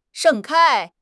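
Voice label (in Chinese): "盛开", Chinese; HSK6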